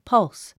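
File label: "pulse", English; 発音 pʌ́ls パルス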